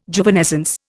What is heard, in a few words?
"Juvenescence", Indian